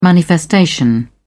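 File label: "manifestation", British English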